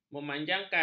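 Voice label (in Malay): "memanjangkan", Malay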